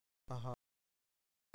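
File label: "aha", Telugu; klettres; tdeedu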